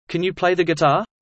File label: fast; studying abroad